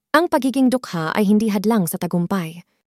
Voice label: Filipino; Text To Voice; DeepVoice AI

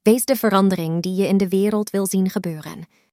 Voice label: Dutch; "Wees de verandering die je in de wereld wil zien gebeuren."; Text To Voice; DeepVoice AI

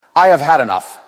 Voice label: he shouted angrily; "I have had enough!"; Text To Voice; DeepVoice AI

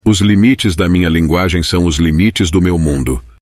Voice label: Portuguese; "Os limites da minha linguagem são os limites do meu mundo."; Text To Voice; DeepVoice AI

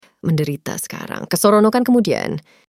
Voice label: Malay; "Menderita sekarang, kesoronokan kemudian."; Text To Voice; DeepVoice AI

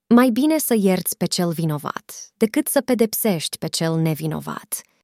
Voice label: Romainian; "Mai bine să ierți pe cel vinovat, decât să pedepsești pe cel nevinovat"; Text To Voice; DeepVoice AI

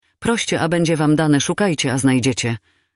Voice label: Polish; Text To Voice; DeepVoice AI

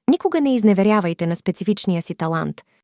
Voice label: Bulgarian; Text To Voice; DeepVoice AI

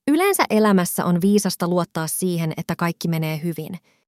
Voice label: Finnish; "Yleensä elämässä on viisasta luottaa siihen, että kaikki menee hyvin."; Text To Voice; DeepVoice AI

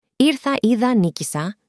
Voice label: Greek; "ήρθα, είδα, νίκησα"; Text To Voice; DeepVoice AI

